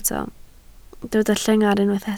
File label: "llengar", Welsh